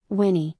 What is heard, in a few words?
"whinny", English; звуки животных на английском; лошадь; Salli